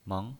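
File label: "meng", pinyin voice, Mandarin Chinese, second tone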